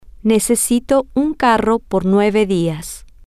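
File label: I need a car for 9 days, Spanish, female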